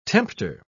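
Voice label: "tempter", British English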